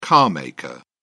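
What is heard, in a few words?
"carmaker", British English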